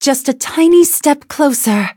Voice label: levelup, en; cookierun